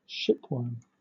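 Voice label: "shipworm", British English; pronunciations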